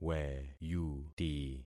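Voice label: "weyuti", concatenated syllables; Affixing experiment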